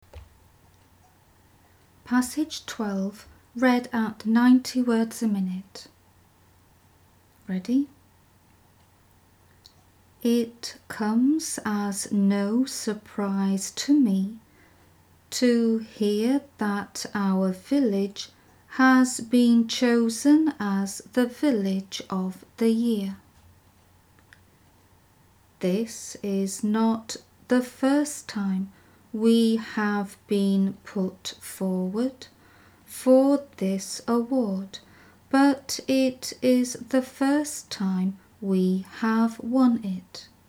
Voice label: 90wpm; Teeline